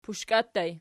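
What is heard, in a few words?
"pushka" ergative plural, Archi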